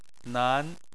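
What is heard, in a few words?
"nan", Chinese, second tone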